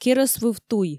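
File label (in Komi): керӧсвыв туй, Komi, female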